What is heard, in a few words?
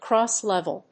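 "cross-level", English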